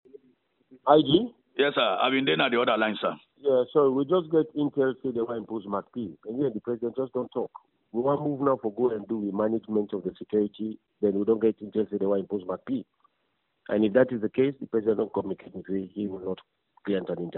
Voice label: recorded conversation; Who leaked recorded conversation between IG and Koroma